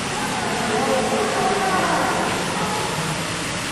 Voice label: lady talking ash cave; EVP